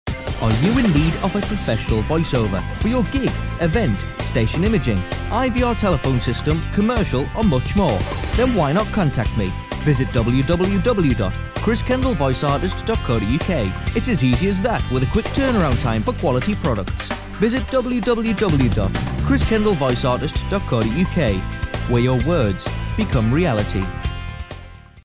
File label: Promo; HCN